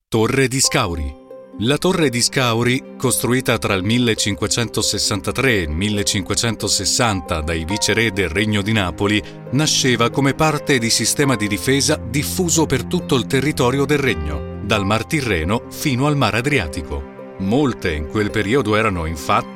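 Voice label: Italian, Audioguide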